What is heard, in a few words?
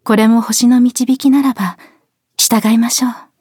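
start, Japanese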